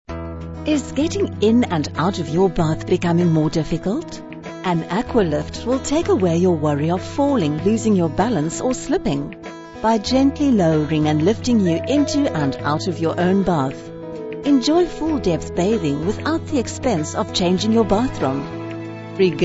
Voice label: TV Commercial